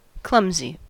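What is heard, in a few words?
"clumsy", US English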